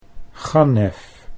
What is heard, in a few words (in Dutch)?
"gannef", Dutch